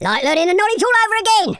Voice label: boring, London Cabbie; speech

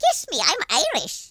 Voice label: "excellent", Irish; speech

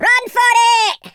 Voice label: runaway, Scotsman; speech

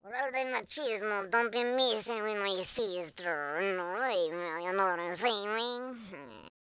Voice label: angry, speech; Worms3D; Spanish Inquisition

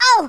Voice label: ouch, speech, French

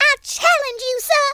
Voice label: Southern Belle; first blood

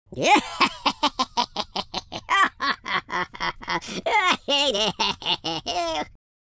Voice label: happy, London Cabbie; speech

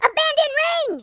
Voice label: run away, American Wrestler

